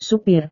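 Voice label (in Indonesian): "supir", Indonesian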